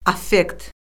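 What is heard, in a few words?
"affekt", Azerbaijani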